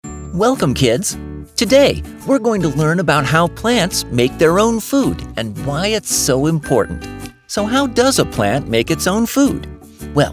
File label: eLearning; Photosynthesis